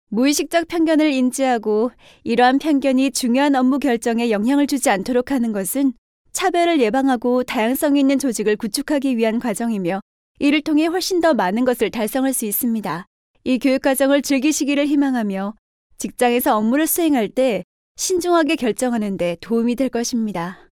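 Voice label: Korean, female, narration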